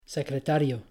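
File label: "secretario", Spanish; pronouncing c g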